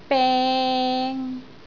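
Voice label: "beng3", Cantonese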